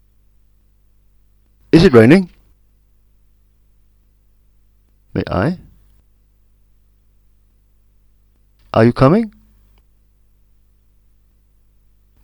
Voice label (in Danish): stigende